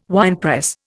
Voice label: "winepress", Indian